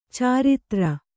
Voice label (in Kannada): "ಚರಿತ್ರೆ", Kannada